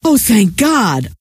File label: "oh thank god", scientist female